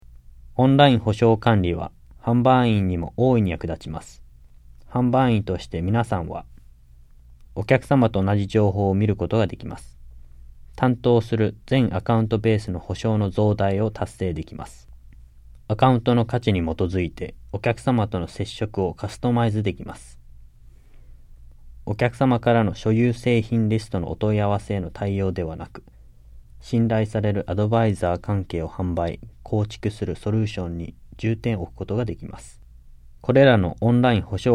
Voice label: JAPANESE; delltranslations